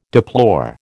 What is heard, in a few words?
"deplore", American